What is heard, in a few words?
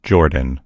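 "jordan", English; gcompris; geography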